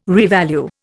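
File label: "revalue", Indian English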